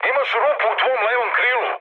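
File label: Serbian left wing mood high, speech; War Thunder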